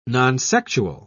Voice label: "nonsexual", en uk